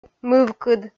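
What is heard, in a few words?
"мывкыд", Komi, female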